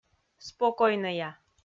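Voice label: "спокойнӧя", Komi, female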